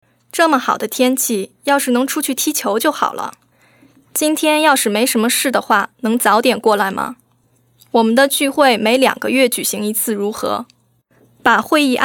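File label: chinese mandarin female narration; voice demos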